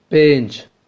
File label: "pench", Kurmanji